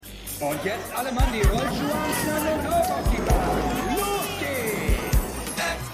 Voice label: Rollschuh Ansager; Winning Time

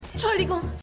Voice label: Kassiererin; The Flash

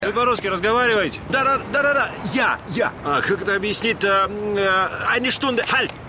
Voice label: Russischer Strassenverkaeufer

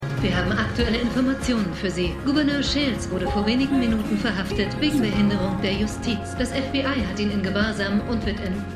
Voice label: Nachrichtensprecherin; For The People

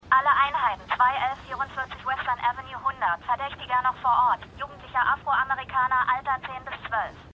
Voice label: Funkstimme Zentrale; FunkstimmeZentraleF; Shameless

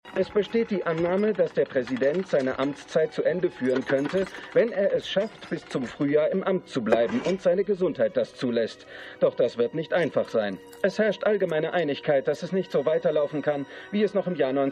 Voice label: Nachrichtensprecher; Gaslit

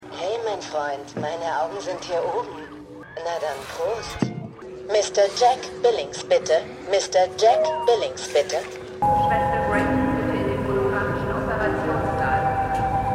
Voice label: Assistentin Durchsage; HELLO TOMORROW!

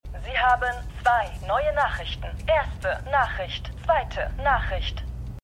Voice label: Mailbox Stimme; Slow Horses

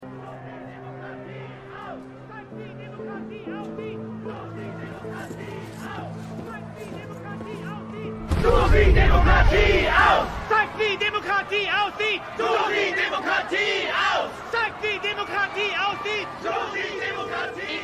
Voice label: Demonstranten Menge, Stimmproben

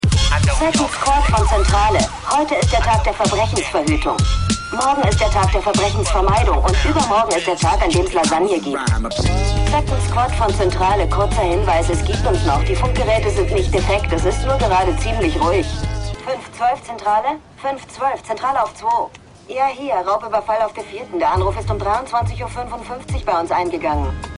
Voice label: Disponentin Zentrale; The Unusuals

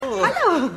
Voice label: Frau; Madam Secretary

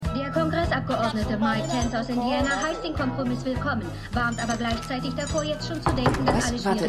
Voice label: Nachrichtensprecherin

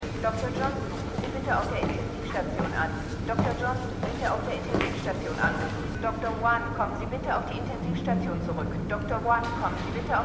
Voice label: Krankenhausdurchsage; The Wire

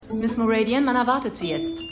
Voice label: Empfangsdame, Stimmproben; The Flight Attendant

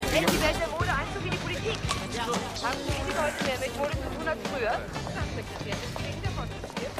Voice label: Reporterin

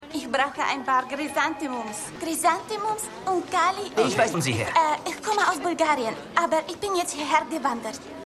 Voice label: Bulgarische Kundin; Valentinstag

